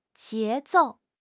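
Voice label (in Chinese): "节奏", Chinese; HSK6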